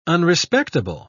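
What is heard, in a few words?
"unrespectable", English, British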